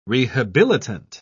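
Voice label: "rehabilitant", British English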